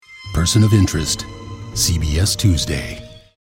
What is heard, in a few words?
Promo; PERSON OF INTEREST